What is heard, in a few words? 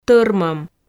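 "тӧрмӧм", Komi, female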